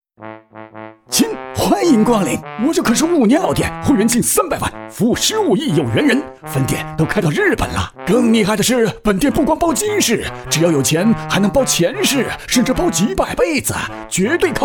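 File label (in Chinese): 广告搞怪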